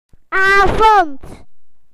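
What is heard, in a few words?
"evening", British English; PictureDictionary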